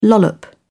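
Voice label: "lollop", British English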